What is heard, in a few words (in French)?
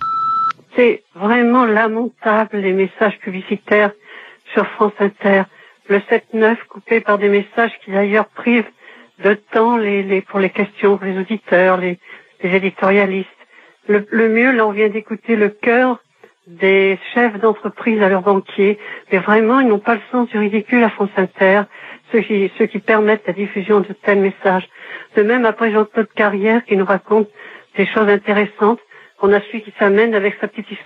Pub répondeur; France Inter